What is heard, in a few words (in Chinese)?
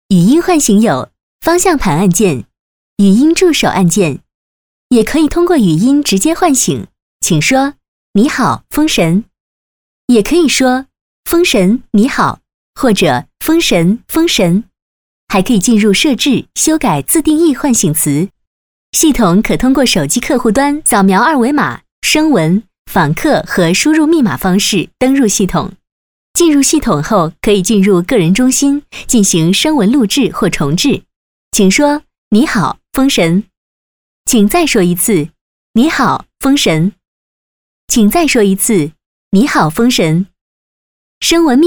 女 专题 语音助手 介绍 甜美; 东风